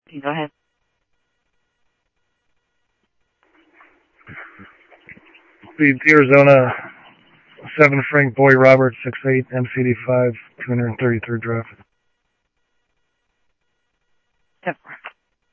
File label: Interop Mutual Aid, scanner audio